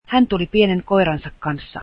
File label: finnish he came with his small dog